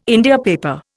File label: "India paper", Indian